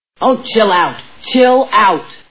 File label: chill